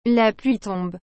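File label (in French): "la pluie tombe", French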